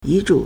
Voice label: "yi2 zhu3", Chinese